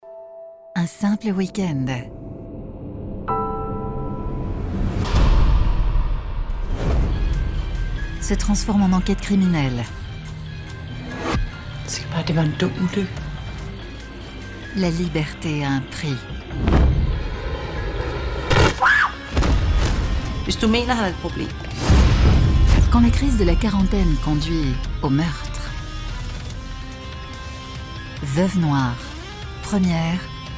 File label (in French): habillage; eurochannel